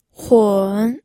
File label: "hun3", pinyin audio